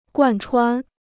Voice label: "贯穿", Chinese

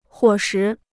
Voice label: "伙食", Chinese

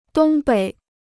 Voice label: "东北", Chinese

